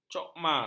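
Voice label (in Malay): "cokmar", Malay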